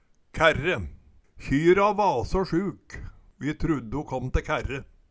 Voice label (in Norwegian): kærre, Numedalsmål